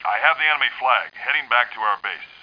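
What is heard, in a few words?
male have flag; Starsiege; Tribes Demo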